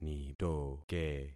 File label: "nitoke", concat syllables; Affixing experiment